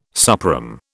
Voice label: "suprÈme", British